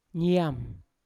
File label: "niam" red, Yawa